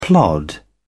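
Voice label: "plod", British English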